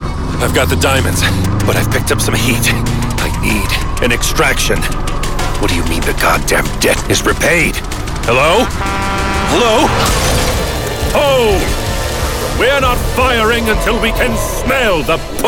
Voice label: Character Demo

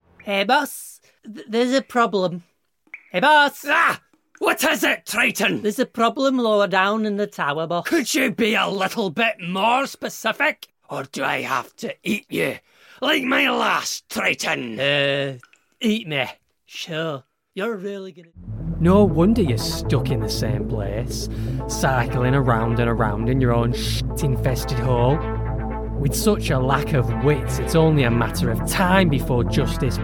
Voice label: character

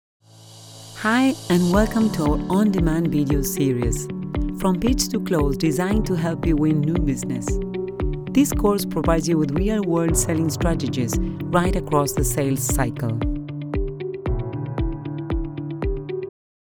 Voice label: ENGLISH E LEARNING

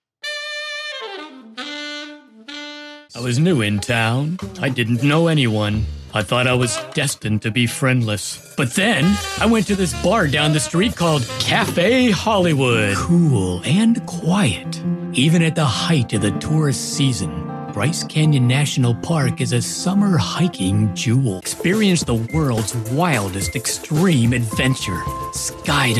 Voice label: Commercial Demo

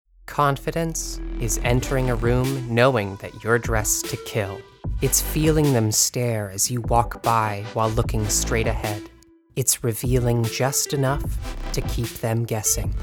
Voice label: Commercial Voiceover

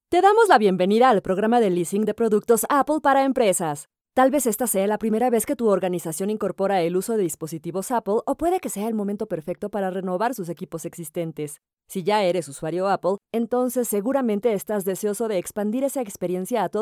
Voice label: Tutorial

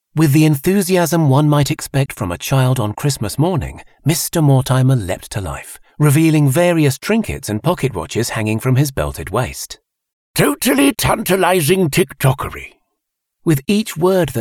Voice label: Audiobooks

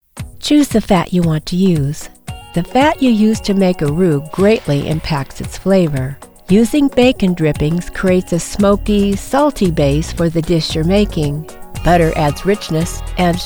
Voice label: Instructional, PSA, documentary

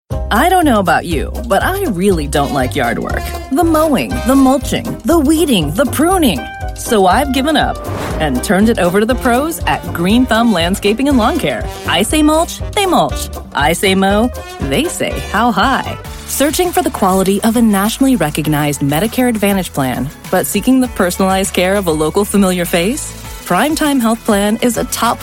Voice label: Commercial VO

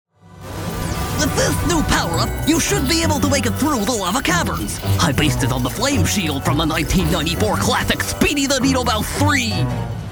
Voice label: Animation

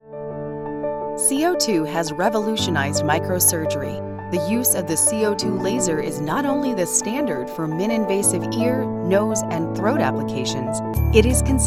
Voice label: Non-Fiction Narration

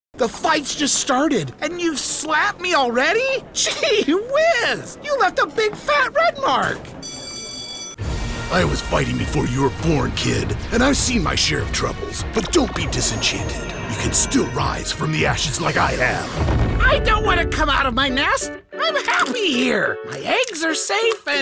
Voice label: Animation

